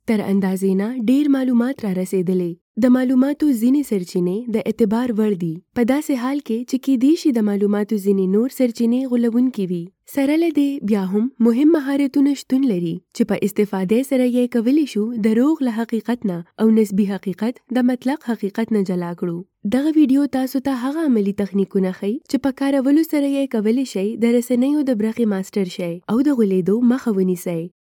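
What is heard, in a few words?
Pashto Pakistan female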